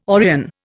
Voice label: "Orion", Indian